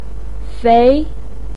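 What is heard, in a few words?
"fei" second tone, Mandarin Chinese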